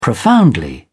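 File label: "profoundly", British English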